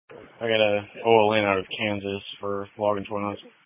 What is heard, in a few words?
Police Patrol 1 (Dispatch)